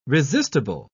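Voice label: "resistible", British English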